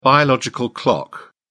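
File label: "biological clock", British English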